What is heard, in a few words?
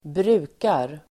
"brukar", Swedish